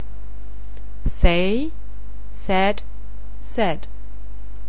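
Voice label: "say", English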